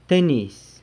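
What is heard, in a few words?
"tenizy", tennis shoes, San Lucas Quiaviní Zapotec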